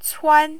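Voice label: "cuan", Mandarin, tone 1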